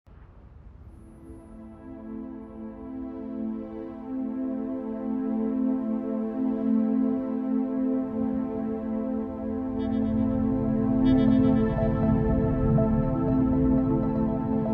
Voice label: Documentaire; 3 petits pas de Patrick Gabarrou

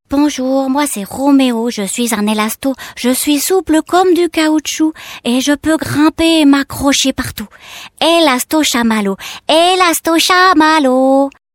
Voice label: Animation Voix garçon

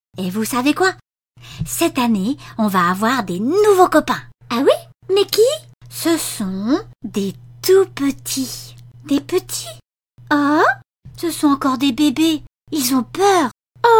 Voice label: Voix Enfants

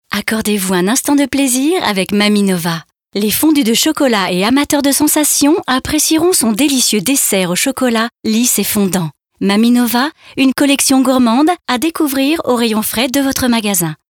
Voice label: Pub Promo; MamieNova

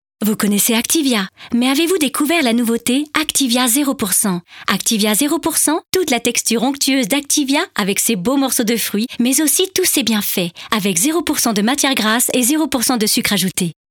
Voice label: Pub Promo; Activia

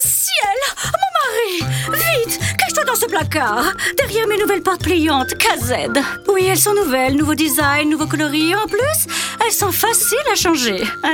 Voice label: Pub Radio Voix de Bourgeoise; Portes Kazed

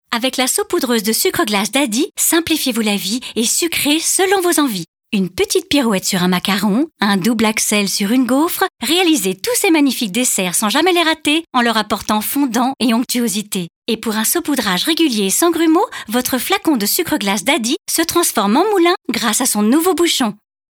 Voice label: Pub promo; Sucre Daddy

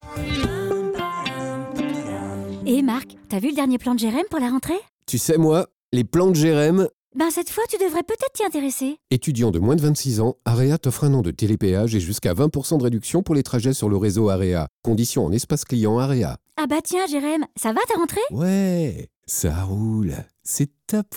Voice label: Pub Radio Telepeage Etudiante; Area APRR

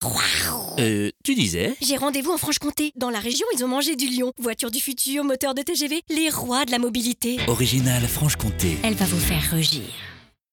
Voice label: Pub jouee; Region Franche Comte

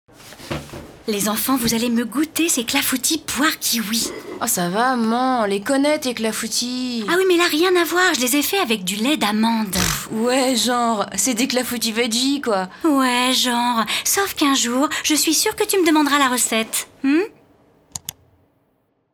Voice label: Pub web; Bjorg; CLAFOUTIS

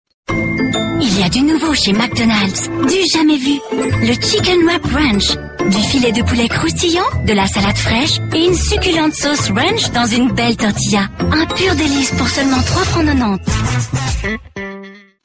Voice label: Pub TV; McDonalds; TV Suisse

